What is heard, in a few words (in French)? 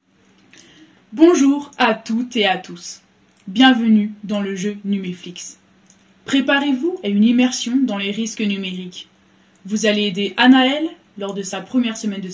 Voix off